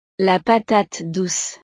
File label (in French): "la patate douce", frances; verduras